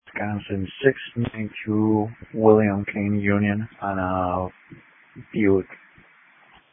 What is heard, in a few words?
Sheriff Dispatch